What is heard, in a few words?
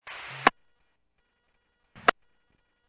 Medevac, scanner; NativeAirAmb